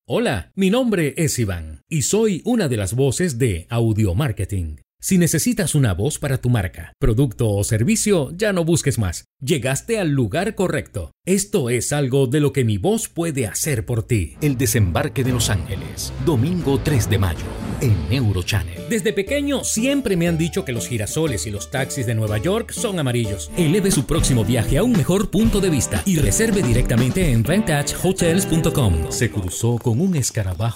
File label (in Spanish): Venezuela; Locutores; Audiomarketing